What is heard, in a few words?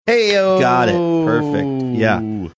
drawn out hey oh